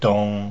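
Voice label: "dong", Mandarin Chinese pinyin; Mandarin Chinese Pronunciation; pinyin sounds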